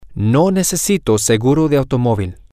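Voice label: I do not need auto insurance, Spanish, male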